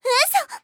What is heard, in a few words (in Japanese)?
嘘！, 小悪魔; ハイグレボイス集